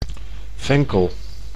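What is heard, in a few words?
"venkel", Dutch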